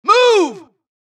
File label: supress, dialogue Allies; mohaa; Mission 2